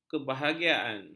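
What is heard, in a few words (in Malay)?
"kebahagiaan", Malay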